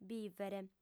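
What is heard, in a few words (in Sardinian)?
"bìvere", female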